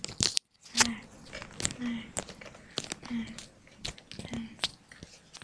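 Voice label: "neck", spoken word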